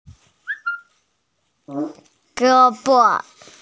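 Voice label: "ge1bo5" Mandarin Chinese, female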